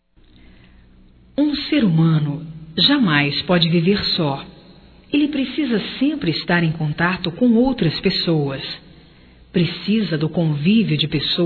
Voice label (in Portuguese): Conselho Geral Feminino, Telemensagens, Só Voz; Telemensagens 2017